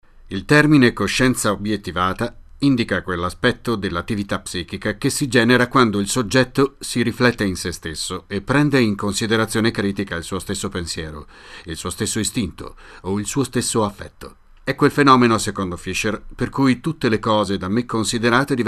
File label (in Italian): documentario; Voz edad: 40-80 años de edad